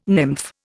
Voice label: "nymph", Indian

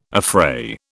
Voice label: "affray", British

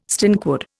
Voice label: "stinkwood", Indian

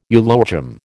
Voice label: "eulogium", American

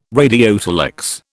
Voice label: "radiotelex", British

